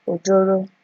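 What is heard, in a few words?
"ojoro", Nigerian Pidgin, female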